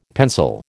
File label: "pencil", American